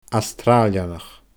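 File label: "Astràilianach", Scottish Gaelic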